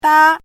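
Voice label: "dā", Mandarin Chinese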